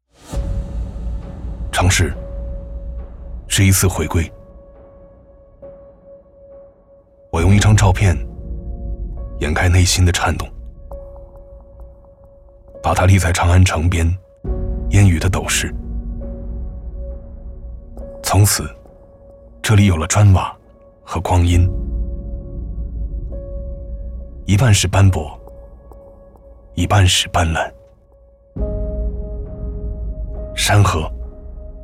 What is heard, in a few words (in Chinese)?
男 文旅 城市独白 自述; 下一个西安